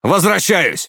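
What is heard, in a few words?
Russian Speech Pilots Fighter Land; World Of Warships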